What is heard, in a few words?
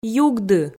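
"югды", Komi, female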